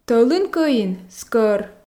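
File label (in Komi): "тӧлын кӧин скӧр", Komi, female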